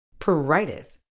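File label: "pruritus", medical English, British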